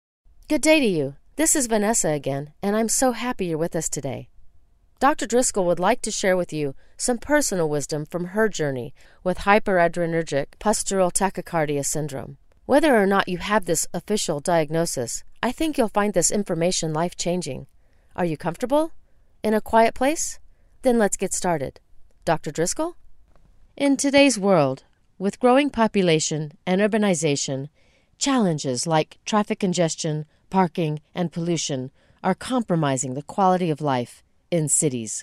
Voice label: English American